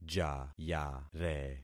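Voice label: "jayare", concatenated syllables; Affixing experiment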